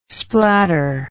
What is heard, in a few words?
"splatter", English